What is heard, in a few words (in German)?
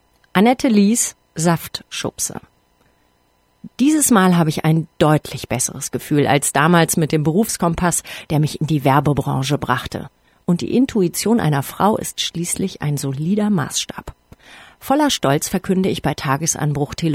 Ich Erzählung